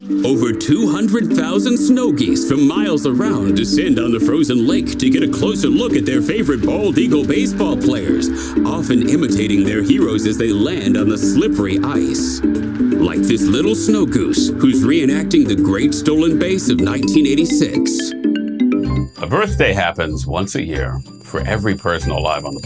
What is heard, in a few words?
narration men